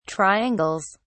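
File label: "triangles", British English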